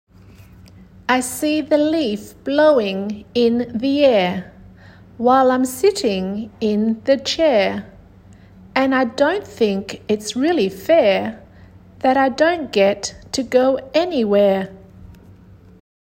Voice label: air are, english phonics; School-based Phonics Programme First Term

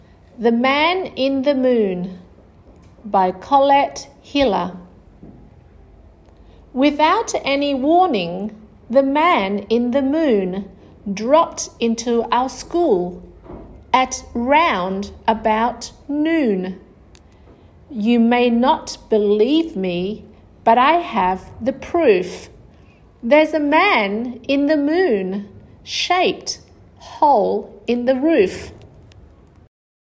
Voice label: oo ue chant, english phonics; ( oo, u-e, ue, ew ); P2 Phonics; School-based Phonics Programme First Term

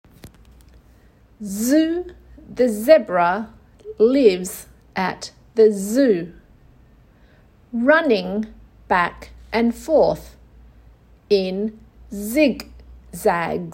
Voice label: z chant, english phonics; sw phonics

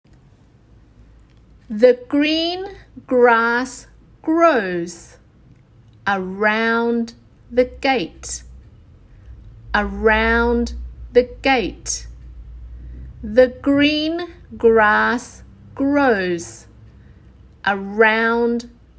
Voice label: g chant, english phonics; sw phonics

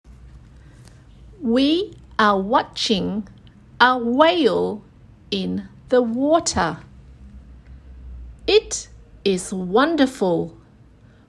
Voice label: w chant, english phonics; sw phonics

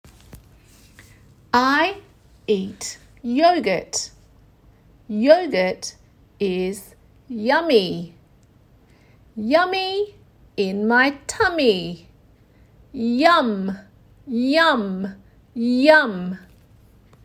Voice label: y chant, english phonics; sw phonics

